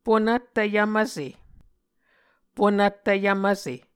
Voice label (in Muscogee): "ponvttv yvmvse", Muscogee